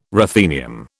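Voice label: "ruthenium", British English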